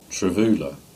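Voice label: "Trefula" Cornish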